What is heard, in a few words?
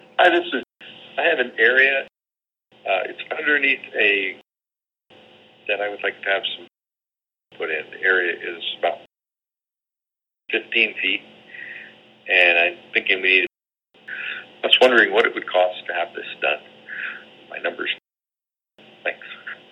Lead Generation Call